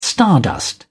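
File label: "stardust", British English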